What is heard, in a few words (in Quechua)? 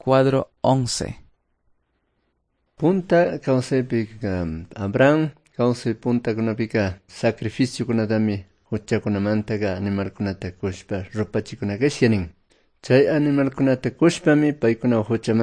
good news quichua cayambe